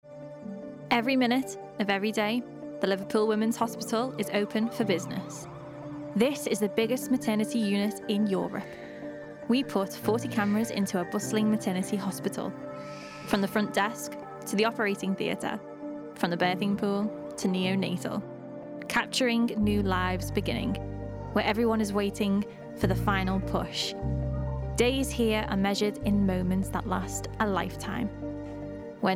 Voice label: Documentary